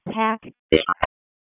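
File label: Police Spec Ops Tac, radio; wake p25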